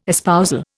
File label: "espousal", Indian